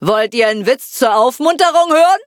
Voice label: defeat, de; Cookie Run